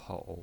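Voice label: "pou" third tone, pinyin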